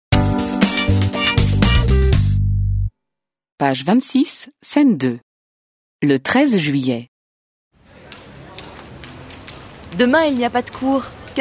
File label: French